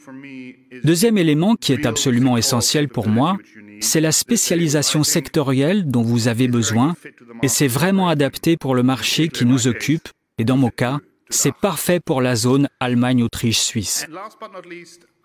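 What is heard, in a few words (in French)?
Surimpression vocale conference, Voice Over Work - Conference; Seven2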